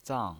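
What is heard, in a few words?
"zang" Mandarin Chinese pinyin voice, fourth tone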